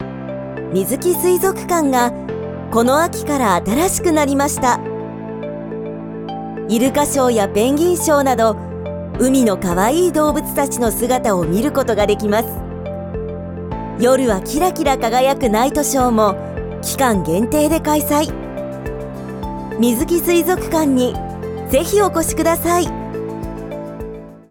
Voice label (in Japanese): 水族館アナウンス